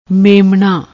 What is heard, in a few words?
"memnaa", Punjabi